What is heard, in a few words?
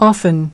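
"Often", English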